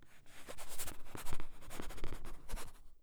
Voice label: narration; SFX